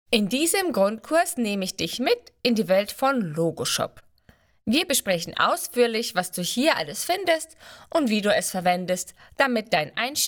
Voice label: E-learning